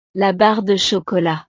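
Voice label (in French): "la barre de chocolat", French; snacks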